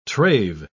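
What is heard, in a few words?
"trave", British English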